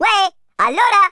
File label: hello, Italian